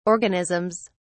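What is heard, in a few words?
"organisms", British English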